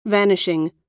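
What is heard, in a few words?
"vanishing", American English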